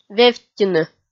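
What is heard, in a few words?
"вевттьыны", Komi, female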